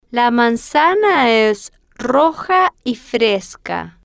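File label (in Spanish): la manzana es roja y fresca, Spanish, female, slow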